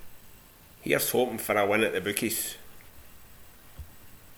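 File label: "fir", Scottish slang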